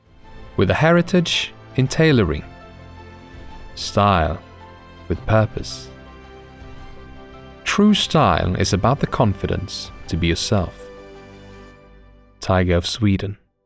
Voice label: male, English with Swedish accent, commercial; tiger of sweden